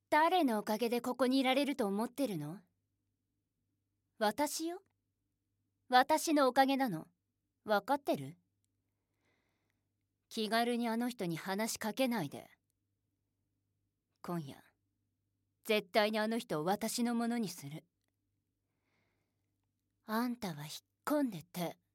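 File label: foreign film dubbing, Japanese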